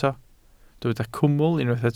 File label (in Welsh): "cwmwl" Welsh